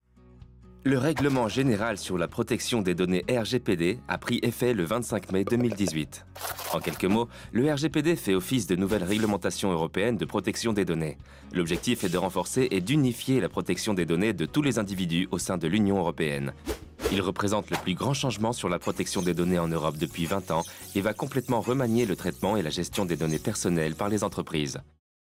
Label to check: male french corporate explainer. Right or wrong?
Right